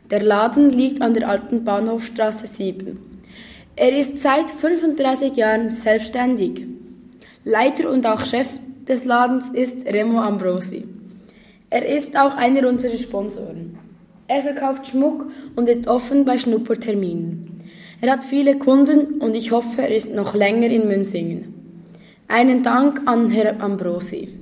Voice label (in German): Werbespot Goldschmied; Ambrosi Goldschmied; Muensingen